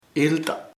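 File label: wind viento, Chamacoco word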